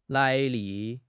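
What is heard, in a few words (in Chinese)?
"来历", Chinese; HSK6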